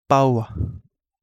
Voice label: Kapingamarangi; streamlined spinefoot